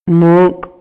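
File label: Maya, male; INALI; MayaYuc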